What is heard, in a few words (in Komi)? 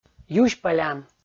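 "юсьпӧлян" Komi, male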